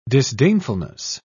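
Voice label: "disdainfulness", British English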